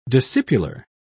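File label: "discipular", English, British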